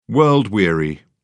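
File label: "world-weary", British English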